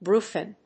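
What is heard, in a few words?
"Brufen", English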